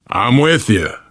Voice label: im with you, voice, biker